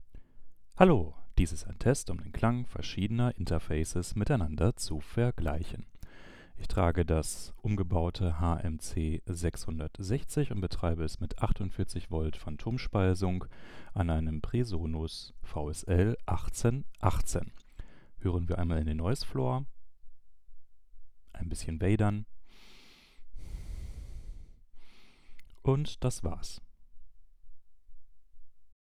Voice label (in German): HMC660MK2 an VSL1818; MHC660MK2